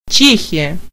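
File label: "czech", Russian; gcompris; geography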